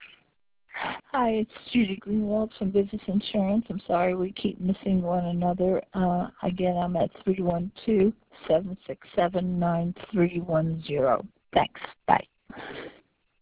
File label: Voice Message